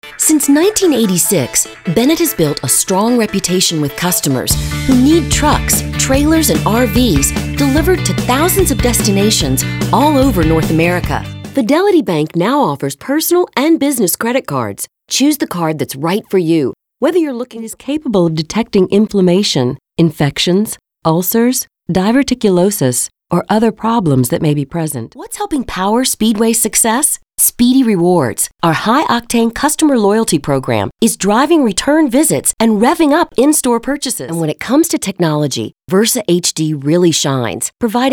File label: Narration